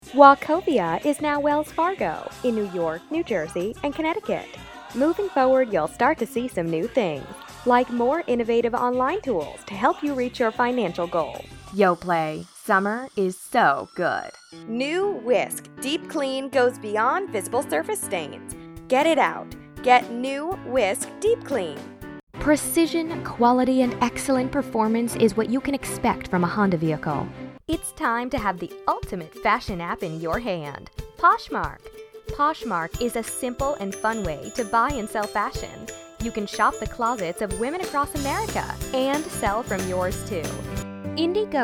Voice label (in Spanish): narración inglés USA